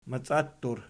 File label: "maciattur", Archi